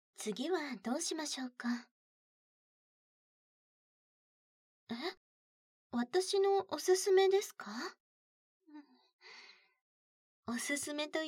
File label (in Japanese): 効果音なし 耳ツボマッサージ, ASMR; 専属秘書